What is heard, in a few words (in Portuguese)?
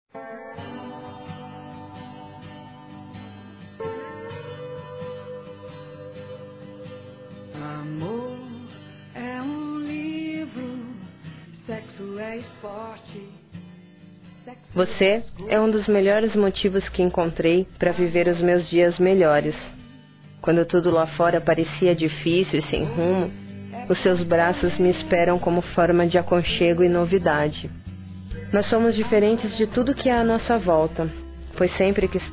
Amantes FEMININO; Dia Dos namorados; Telemensagens 2017